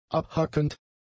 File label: "abhackend", German; adjectives